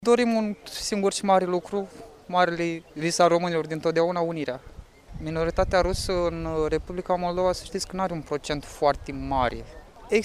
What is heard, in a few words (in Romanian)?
vox pop